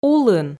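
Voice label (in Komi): "улын", Komi, female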